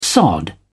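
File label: "sod", British English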